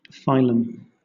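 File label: "phylum", British English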